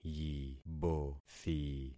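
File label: "yibothi", concatenated syllables; Affixing experiment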